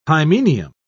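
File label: "hymenium", British English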